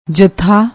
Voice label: "jatha", Punjabi